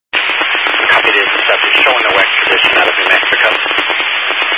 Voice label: Highway Patrol, scanner; DPS; Dist 06 Casa Grande